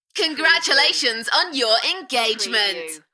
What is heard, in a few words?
UK female Congratulations on your engagement Enthusiastic; beanstalk audio